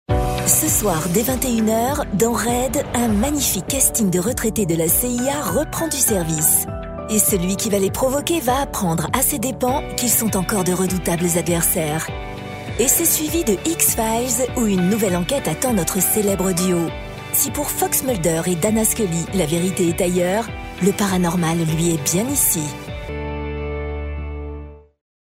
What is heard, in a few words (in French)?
informative